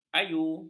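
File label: "ayu", Malay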